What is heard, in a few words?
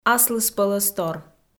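"аслыспӧлӧстор" Komi, female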